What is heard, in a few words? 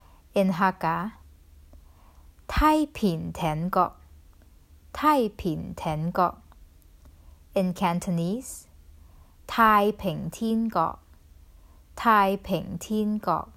"Taiping Tianguo 太平天国" Hakka Cantonese Mandarin; The Duke Who Didn’t: Glossary & Pronunciation Guide